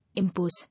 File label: "Impose", English